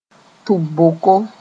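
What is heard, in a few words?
"tʋb-boko", Mossi; auditory canal; Mooré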